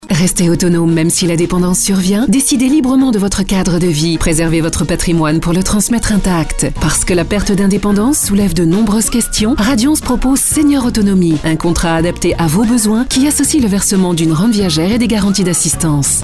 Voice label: commercial